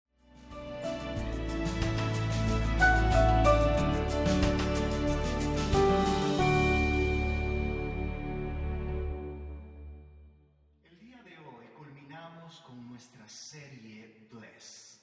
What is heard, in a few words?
SERMON SPA